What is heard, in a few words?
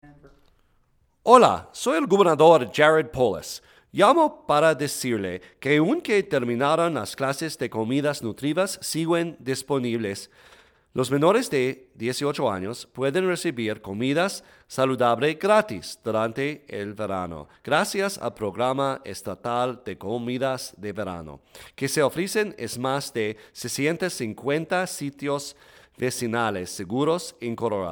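Robo Call Spanish; nutrition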